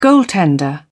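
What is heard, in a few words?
"goaltender", British English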